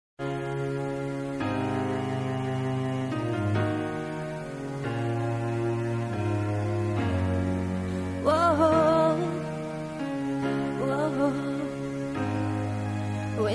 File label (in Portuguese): Estou gostando de você, M.